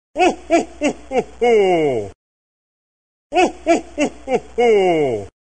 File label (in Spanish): sonido jo, papa noel jo jo jo; navidad